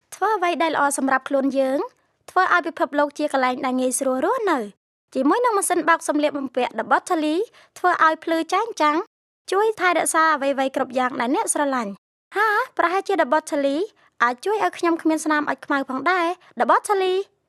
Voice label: Khmer